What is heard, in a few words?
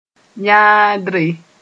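"yãadre", Mossi; childishness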